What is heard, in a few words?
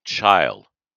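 "Chile", US English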